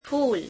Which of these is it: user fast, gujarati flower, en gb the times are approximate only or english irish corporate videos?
gujarati flower